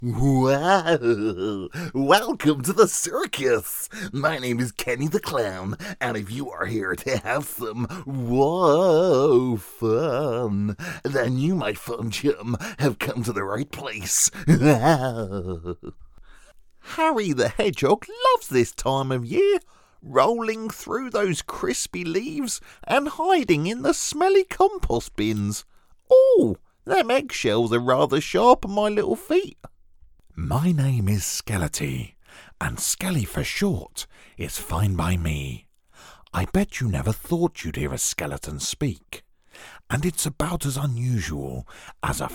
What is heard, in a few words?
Character